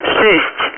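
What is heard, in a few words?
Speech, Russian, air traffic control; Digits; DCS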